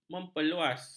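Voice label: "memperluas", Malay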